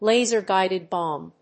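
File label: "laser guided bomb", English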